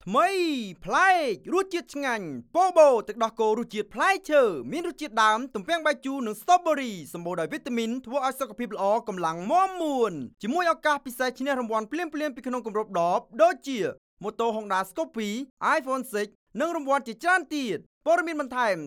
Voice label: TVC, khmer voice